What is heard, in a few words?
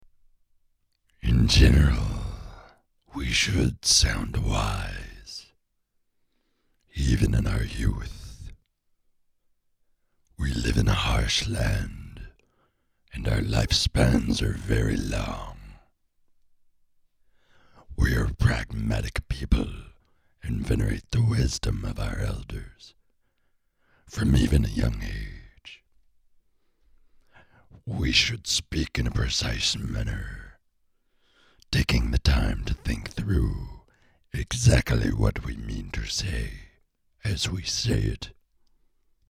audition dunmer gravel